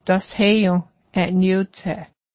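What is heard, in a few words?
give her the gloves, Cayuga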